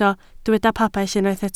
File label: "pabell", Welsh